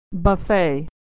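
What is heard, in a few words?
"buffet", American English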